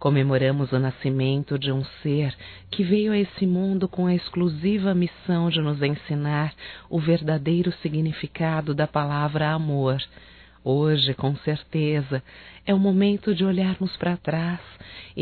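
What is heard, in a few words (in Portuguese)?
NATAL FILHO, feminino; Telemensagens 2017; Natal & Ano Novo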